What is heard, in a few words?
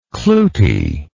"cloete", English